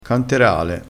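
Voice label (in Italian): "canterale", dialettale crespinese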